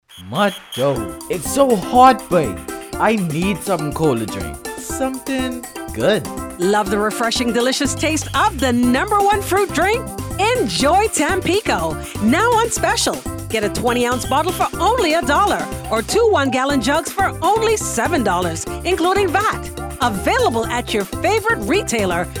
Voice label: Spring Sale Teen Ad; TPC